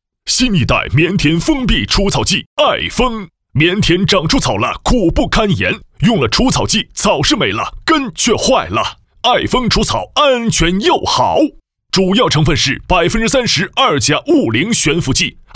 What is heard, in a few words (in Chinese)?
男 激情快速 农药广告; 爱封农药广告